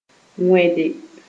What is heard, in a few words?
"wẽde", Moore